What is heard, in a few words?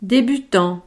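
"debutant", French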